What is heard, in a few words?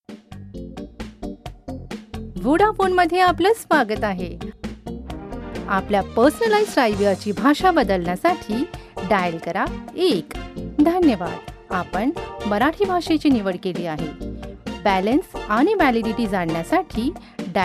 ivr marathi